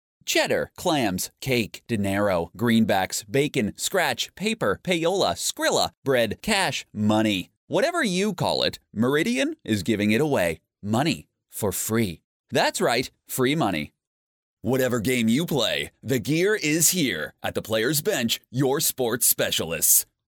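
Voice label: American English, male, voice over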